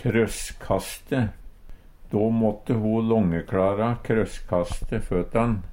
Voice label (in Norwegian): krosskaste, Numedalsmål